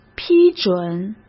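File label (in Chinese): "批准", Mandarin Chinese; hsk; chinese-flashcards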